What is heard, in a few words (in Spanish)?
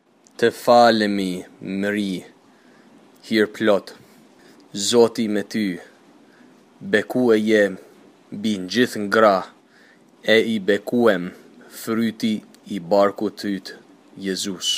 ave maria Albanes lento